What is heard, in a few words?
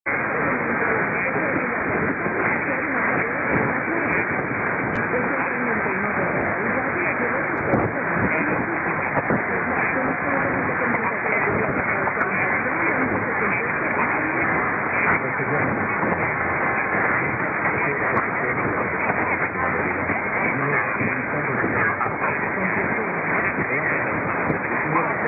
1575 Radio Farda UAE